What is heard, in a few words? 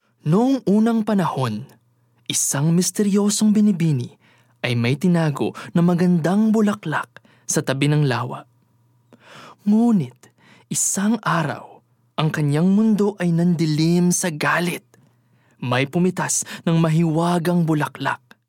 Tagalog, Expressive, Dramatic